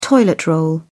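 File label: "toilet roll", British English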